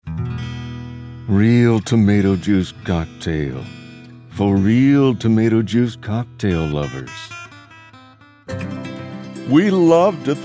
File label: commercial reel